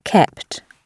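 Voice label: "kept", British English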